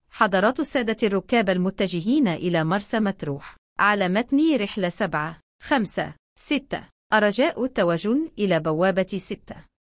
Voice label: arabic calling